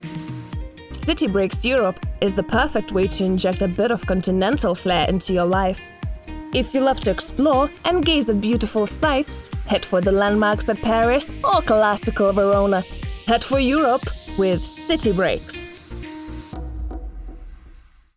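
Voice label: English City Breaks ad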